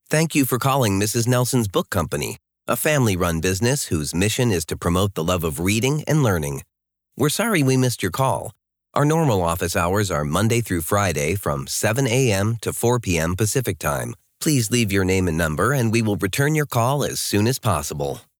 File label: Phone VO; Mrs. Nelsons Book Company